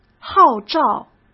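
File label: "号召", Mandarin; chinese-flashcards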